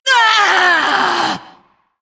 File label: Female Lich Death